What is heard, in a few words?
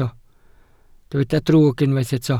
"drwg", Welsh